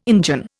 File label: "Injun", Indian